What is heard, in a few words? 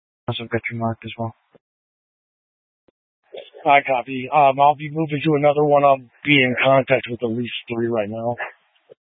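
Incident Tac, Scanner audio recording; Incident Tac 3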